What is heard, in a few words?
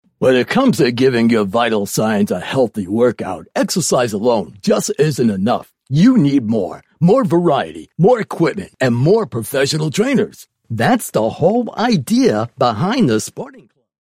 radio health club